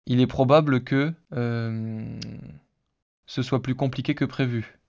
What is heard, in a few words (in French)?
hesitation synthese basique